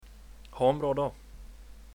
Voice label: nice day, swedish

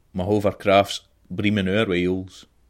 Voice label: hovercraft scots

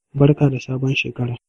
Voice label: birthday hausa

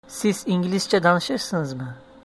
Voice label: do you speak eng, Azeri

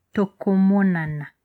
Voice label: goodbye, Lingala

